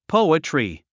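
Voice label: "poetry", English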